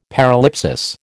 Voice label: "paralipsis", American